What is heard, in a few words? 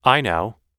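"i know", US English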